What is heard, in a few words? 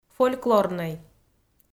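"фольклорнӧй", Komi, female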